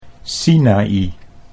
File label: "Sinai", Dutch